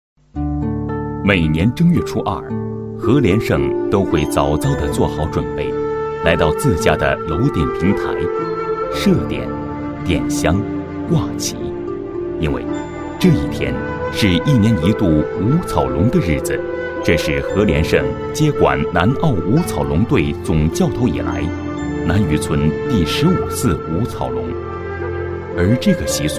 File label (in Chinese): 人物专题男-舌尖风格; 人物介绍